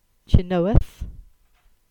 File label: "Chynoweth" Cornish